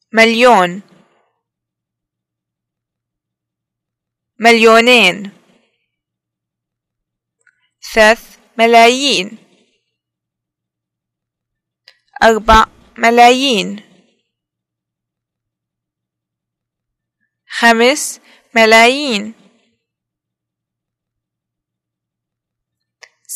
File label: numbers 10 100, arabic, pl